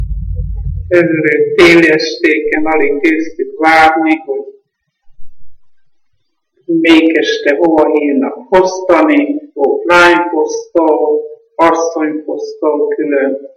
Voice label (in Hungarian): nyelvjaras gyujtesek